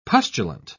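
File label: "pustulant", British English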